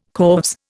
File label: "corves", Indian